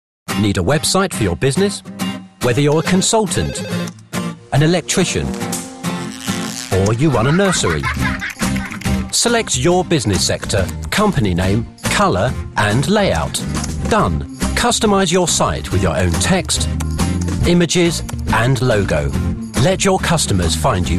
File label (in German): Eng UK Werbung Freundlich